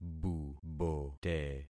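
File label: "bubote", concatenated syllables; Affixing experiment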